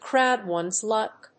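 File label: "crowd ones luck", English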